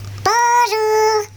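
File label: "bonjour", French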